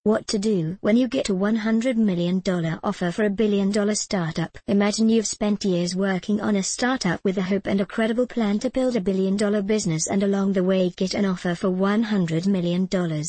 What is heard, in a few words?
English (UK); Emma